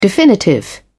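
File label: "definitive", British English, dictionary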